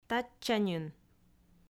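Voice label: "татчаньын", Komi, female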